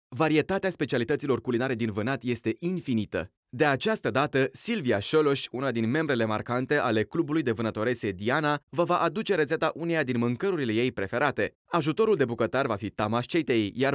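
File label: romanian male narration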